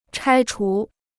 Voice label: "拆除", Chinese

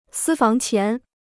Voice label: "私房钱", Chinese

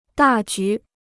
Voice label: "大局", Chinese

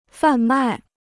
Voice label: "贩卖", Chinese

